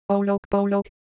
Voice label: Korean, ideophones; Texture